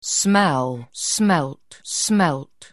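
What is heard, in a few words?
"smell", English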